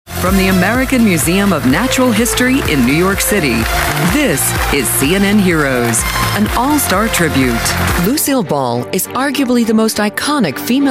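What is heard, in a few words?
narration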